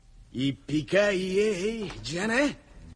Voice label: japanese